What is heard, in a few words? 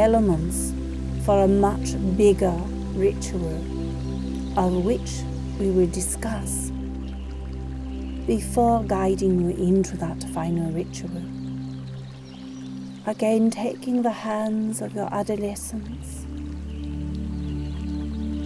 Beltane water Meditation